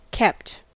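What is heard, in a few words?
"kept", American English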